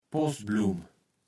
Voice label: "Poßblumm", Platt

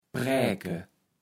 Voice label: "präke", Platt

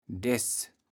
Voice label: "Deß", kaevels platt

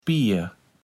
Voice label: "Spier", platt

